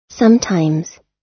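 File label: "sometimes", US English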